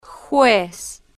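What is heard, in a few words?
"juez", spanish, slow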